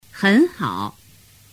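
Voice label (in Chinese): hen hao, Chinese; tu moi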